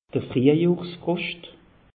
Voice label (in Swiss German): "friehjohr froscht", alsace; meteo